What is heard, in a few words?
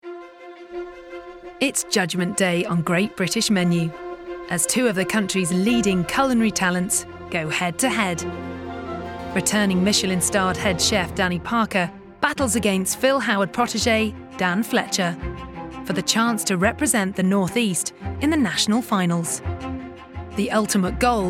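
documentary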